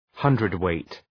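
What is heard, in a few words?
"hundredweight", English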